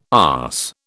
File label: "arse", British